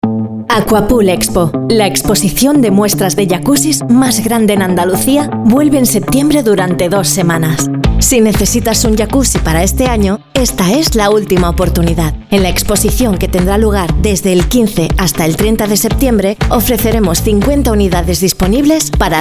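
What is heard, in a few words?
Spanish, seslendirme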